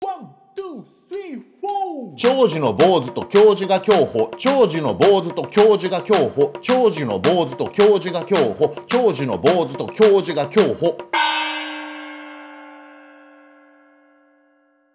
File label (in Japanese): 早口言葉リズム（中級編）